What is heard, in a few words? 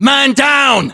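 reaction casualty generic, battlechatter British; english; uk; localized english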